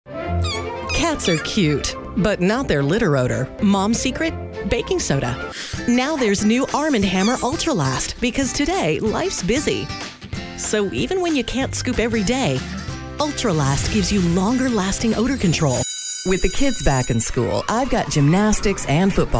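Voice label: American commercial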